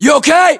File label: status check, us; localized english; battlechatter